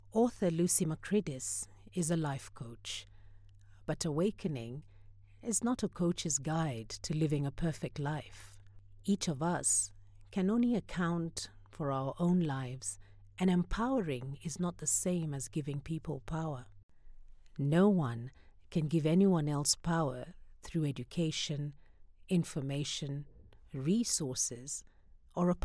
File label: Audio Book Reading; voice actor located in Nairobi, Kenya